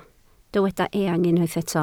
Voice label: "eang", Welsh